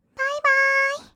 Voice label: "バイバーイ", kawaii voice; ASMR; 挨拶; 1200 kawaii voice!!

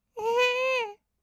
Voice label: "んー！", kawaii voice; ASMR; 色々なワンショット

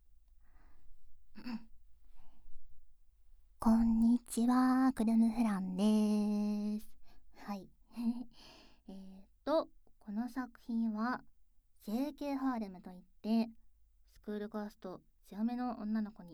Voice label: フリートーク

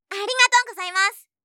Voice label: 「ありがとうございます」, 相づち・反応系【ポジティブ】

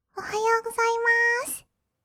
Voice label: "おはようございます", kawaii voice; 挨拶

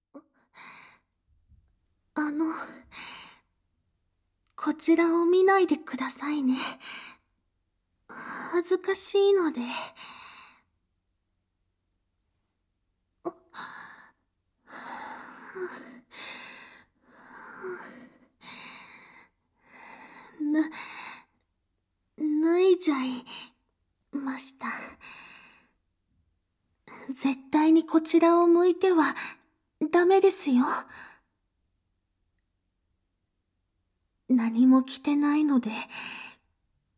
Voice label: 甘々和服メイドさん ボイスのみ

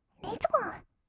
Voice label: "レッツゴー", kawaii voice; 音ゲー

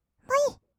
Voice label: ぶい, kawaii voice; ASMR; 色々なワンショット

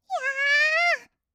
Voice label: "やーーーーー", kawaii voice; 1200 kawaii voice!!; 色々なワンショット

